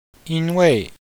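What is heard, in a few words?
"yin1wei4", Chinese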